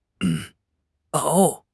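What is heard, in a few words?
"熬", Mandarin Chinese; HSK; HSK6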